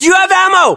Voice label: status check, us